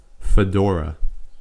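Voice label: "fedora", US English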